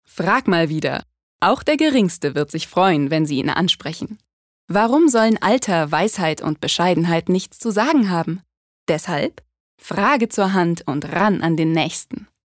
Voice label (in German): Werbung, Freundlich